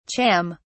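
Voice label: "cham", British English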